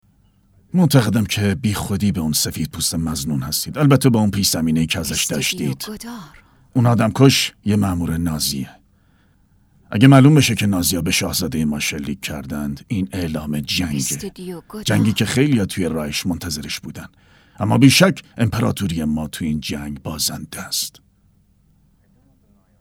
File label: dub